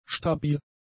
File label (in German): "stabil", German; adjectives